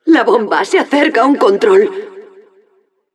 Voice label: Announcer cart attacker warning, es; ¡La bomba se acerca a un control!

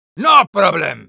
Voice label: Sniper positive vocalization, es; "¡No problem!"

